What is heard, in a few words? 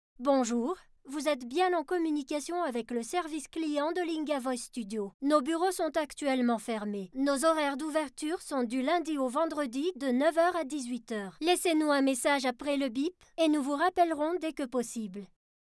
Corporate